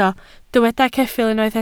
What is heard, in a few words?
"ceffyl", Welsh